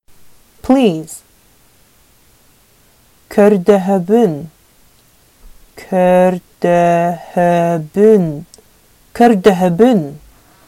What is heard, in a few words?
Sakha "Please"